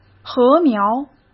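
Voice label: "禾苗", Mandarin Chinese; hsk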